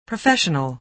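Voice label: "professional", American English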